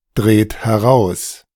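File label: "dreht heraus", German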